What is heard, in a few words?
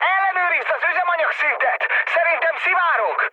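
Hungarian tank damaged mood high, speech; War Thunder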